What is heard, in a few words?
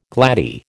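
"gladdie", American English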